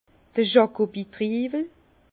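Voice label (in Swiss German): "jakowitriiwel", Alsace Bas Rhin; vin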